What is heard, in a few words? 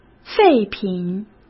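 "废品", Mandarin Chinese; hsk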